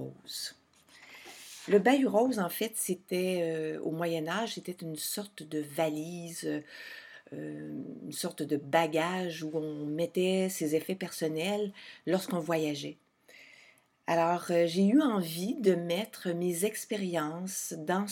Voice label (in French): Premier Podcast avec musique